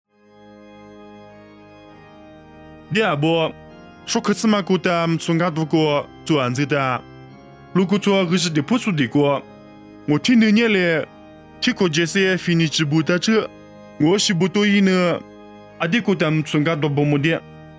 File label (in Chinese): 凉山彝语; 地中海非尼基